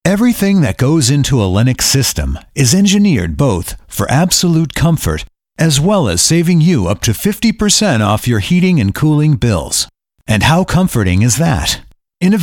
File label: promo